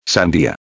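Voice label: "sandia", Spanish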